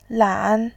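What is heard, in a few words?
"lan", Mandarin Chinese, third tone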